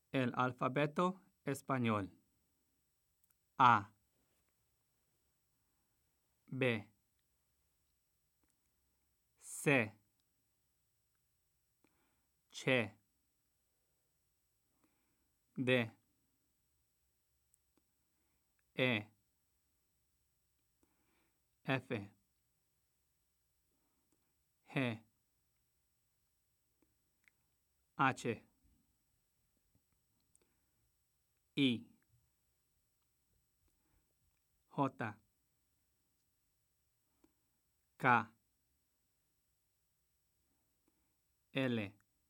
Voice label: spanish alphabet